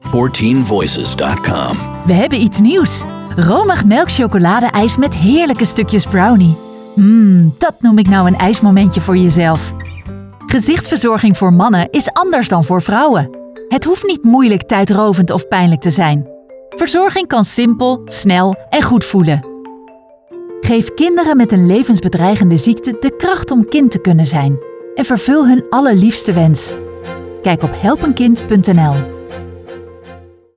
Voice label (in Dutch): Commercials; 14Voices